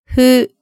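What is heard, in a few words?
"fu", Japanese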